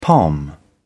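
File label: "pom", British English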